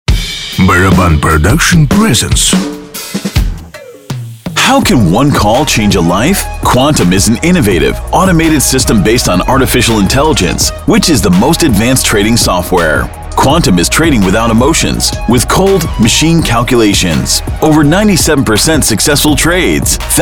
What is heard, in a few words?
English VO; Quantum